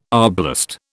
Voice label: "arblast", British